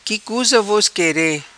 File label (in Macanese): Qui cuza vos quere, Macanese